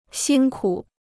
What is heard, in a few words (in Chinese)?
"辛苦", Chinese